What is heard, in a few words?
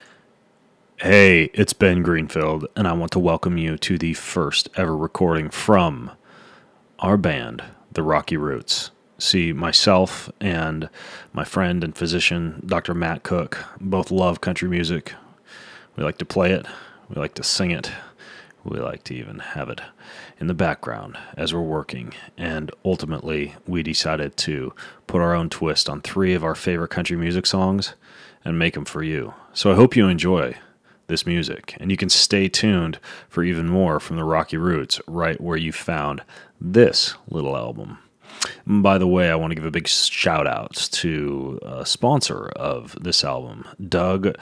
Intro, Start, Host; Rocky Roots